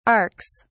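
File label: "arks", British English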